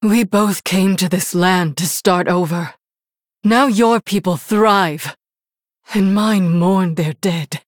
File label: Female Human Idle